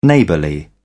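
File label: "neighbourly", British English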